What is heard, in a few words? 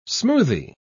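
"smoothy", British English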